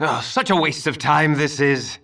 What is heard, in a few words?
Male; baldurs1